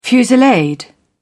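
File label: "fusillade", British English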